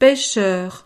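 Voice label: "pecheur", French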